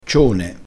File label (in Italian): "cione", crespinese